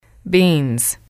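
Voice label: "beans", English, female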